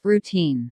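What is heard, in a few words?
"routine", English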